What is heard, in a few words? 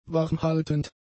"warmhaltend", German; adjectives